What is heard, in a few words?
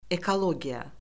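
"ekologiya", Azerbaijani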